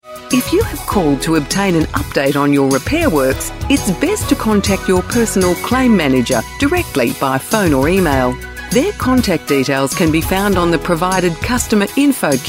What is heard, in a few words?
on hold